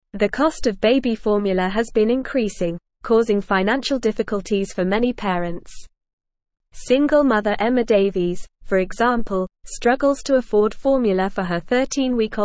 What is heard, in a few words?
English Advanced NORMAL Reading; English Newsroom; Rising Baby Formula Costs Strain Parents Budgets